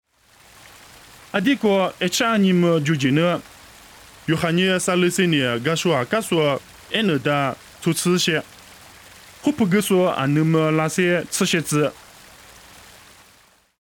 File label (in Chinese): 凉山彝语; 以色列哀嫩; nuosu